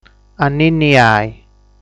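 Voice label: "Anninniai", Sardinian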